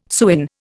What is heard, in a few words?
"sewin", Indian